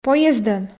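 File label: "поездӧн" Komi, female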